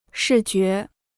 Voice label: "视觉", Chinese

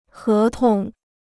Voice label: "合同", Chinese

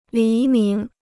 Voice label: "黎明", Chinese

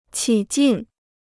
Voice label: "起劲", Chinese

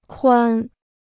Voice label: "宽", Chinese

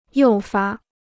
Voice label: "诱发", Chinese

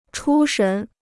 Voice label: "出神", Chinese

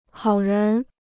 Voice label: "好人", Chinese

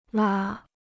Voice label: "拉", Chinese

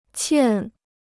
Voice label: "欠", Chinese

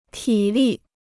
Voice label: "体力", Chinese

